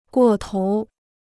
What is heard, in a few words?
"过头", Chinese